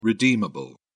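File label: "redeemable", English, British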